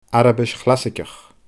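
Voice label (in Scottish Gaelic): "Arabais Chlasaigeach", Scottish Gaelic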